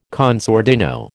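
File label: "con sordino", American English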